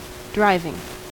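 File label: "driving", US English; pronunciation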